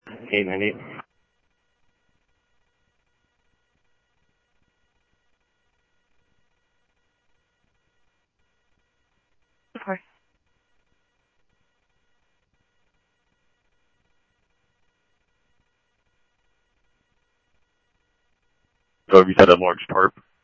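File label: Sheriff Lake Patrol, scanner audio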